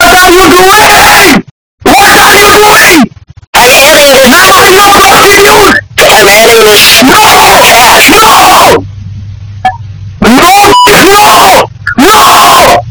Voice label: earrape indian scammer; indian scammer voice

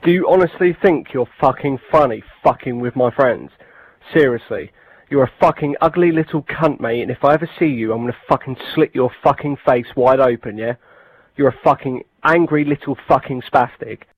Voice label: british rage

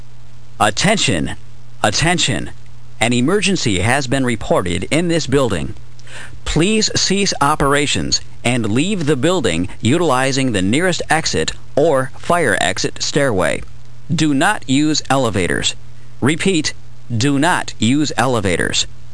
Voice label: voice evac message

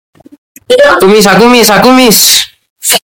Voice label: voice maxing

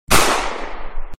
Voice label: big bruh

